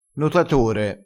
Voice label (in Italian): "notatore", dialettale crespinese